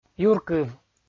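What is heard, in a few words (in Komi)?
"юркыв" Komi, male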